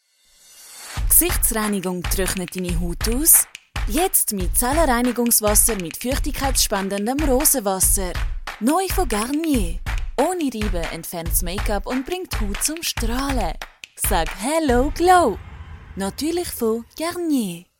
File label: german switzerland commercial